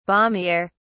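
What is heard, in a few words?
"balmier", British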